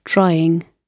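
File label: "trying", British English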